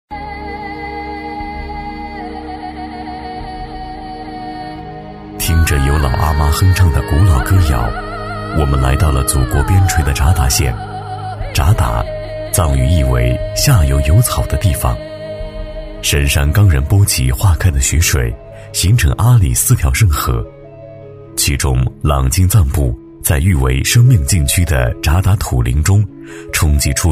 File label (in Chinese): 男 纪录片 温暖解说; 象泉河谷; 大气沉稳 大气浑厚